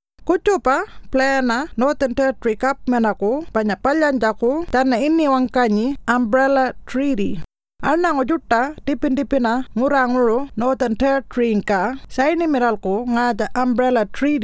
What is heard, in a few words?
Pintupi Luritja; Umbrella Treaty